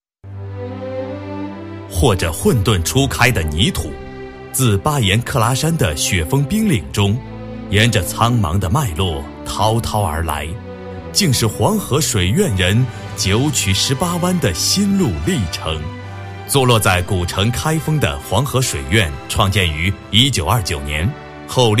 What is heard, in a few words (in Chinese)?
男40 抒情专题 黄河, 国语男声配音